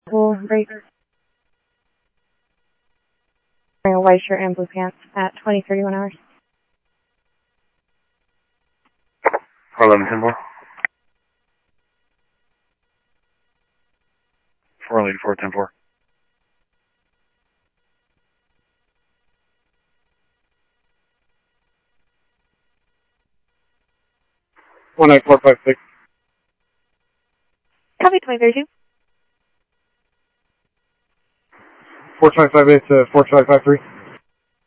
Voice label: police, scanner audio; SCTSDLE PD - PD2; ScottsdalePolice; ThompsonPeakHigh